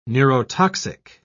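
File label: "neurotoxic", British English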